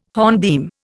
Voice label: "hornbeam", Indian